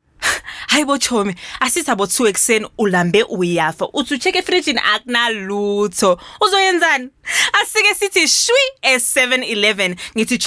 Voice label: Zulu Conversational

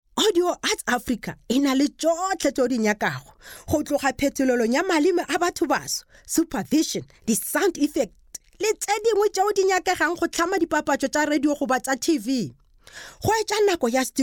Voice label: Pedi Retail

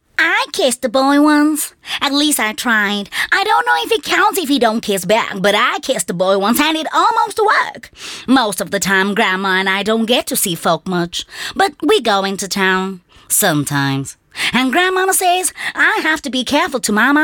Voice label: Character Teenager

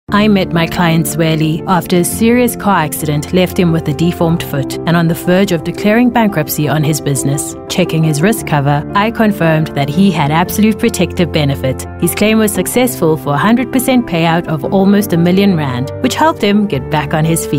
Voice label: English Conversational

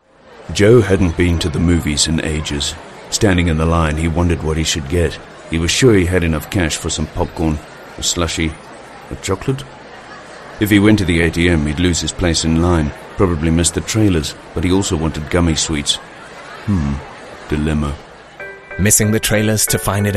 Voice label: English Dry

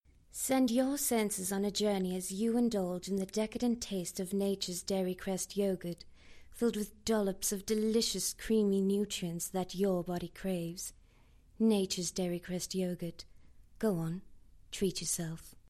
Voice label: English Soft Sell

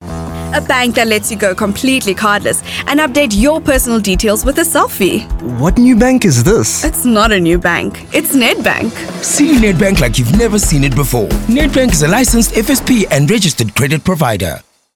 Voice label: English Bright

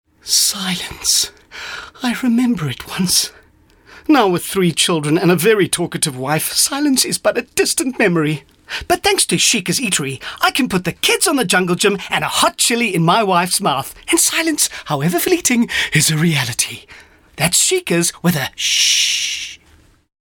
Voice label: English Dramatic

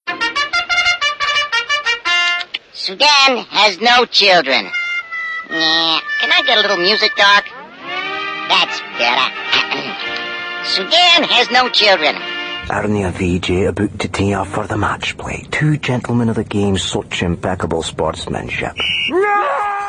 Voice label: Showcase Characters and Accents

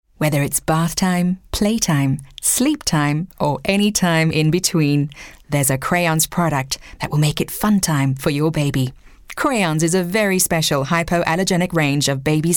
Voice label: English Soft Sell

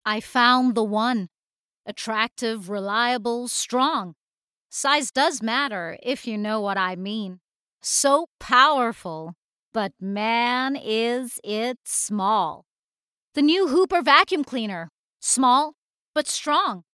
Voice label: Accent American

